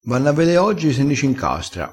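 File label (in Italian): "incastra", crespinese dialettale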